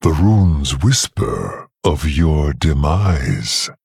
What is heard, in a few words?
Male Quilboar